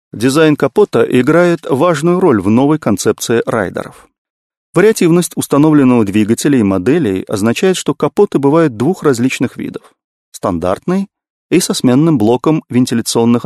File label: russian male